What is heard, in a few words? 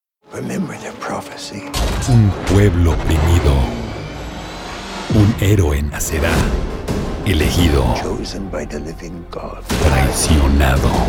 spanish latin american movie trailers